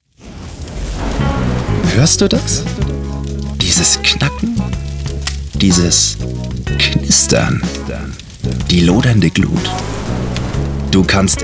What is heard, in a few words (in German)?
werbung stimmungsvoll